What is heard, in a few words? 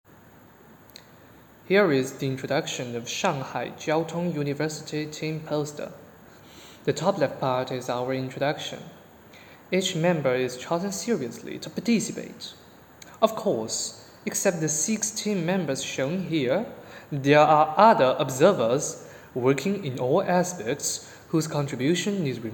Voiceover; ClusterComp 2020